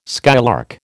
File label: "skylark", American